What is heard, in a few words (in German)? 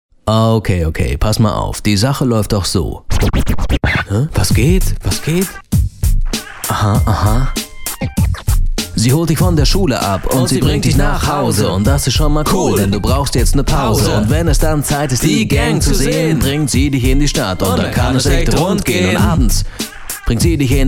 Sprecher werbung hiphop